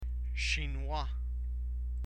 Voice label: "chinois", French